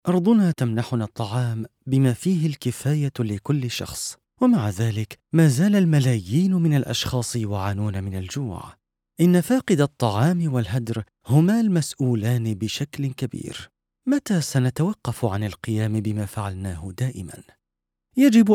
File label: Docu Narrat